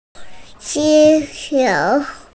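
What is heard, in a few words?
"zú qiú", Mandarin Chinese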